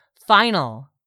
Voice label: "FINAL", english; 3000 words